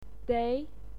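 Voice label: "dei", Mandarin Chinese, second tone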